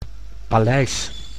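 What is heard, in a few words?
"paleis", Dutch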